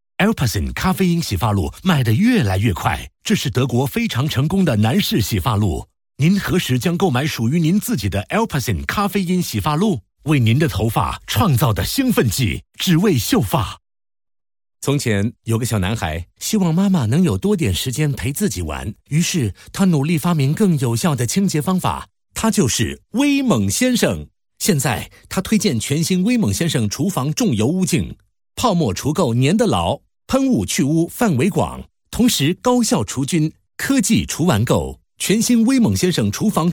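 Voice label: M, Mandarin, male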